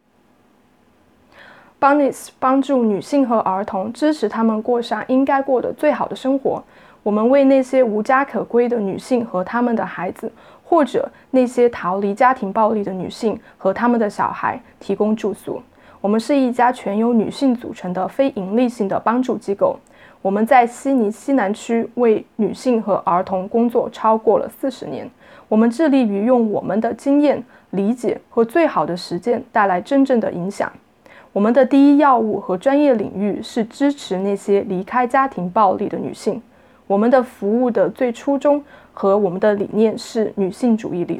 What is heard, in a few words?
Mandarin